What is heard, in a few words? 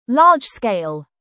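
"large-scale" British; Flora and fauna, agriculture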